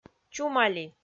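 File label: "чумали", Komi, female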